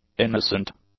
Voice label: "innocent", English; adjectives